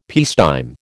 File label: "peacetime", American